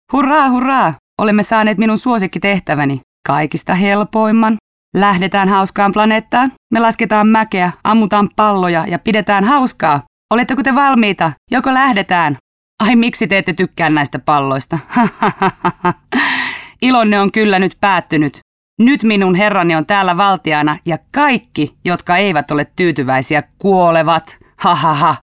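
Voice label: finnish female character; voice talents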